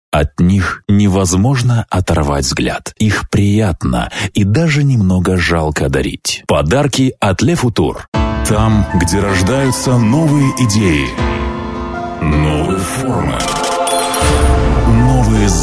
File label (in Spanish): Masculino